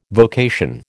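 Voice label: "vocation", American English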